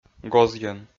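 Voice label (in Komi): "гозйӧн", Komi-Zyrian, male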